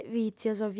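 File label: "vìtzios", lingua sarda, female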